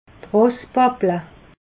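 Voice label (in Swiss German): "rosspapple", Alsatian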